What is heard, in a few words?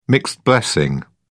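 "mixed blessing", British English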